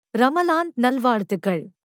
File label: Tamil Happy Ramadan; Greetings